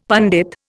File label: "pandit", Indian; Dictionary